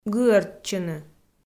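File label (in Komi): "гыӧртчыны", Komi, female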